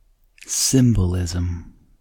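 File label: "symbolism", American English; pronunciation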